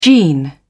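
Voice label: "gene", British English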